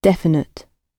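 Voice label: "definite", British English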